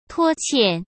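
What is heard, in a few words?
"拖欠", Mandarin Chinese; hsk; chinese-flashcards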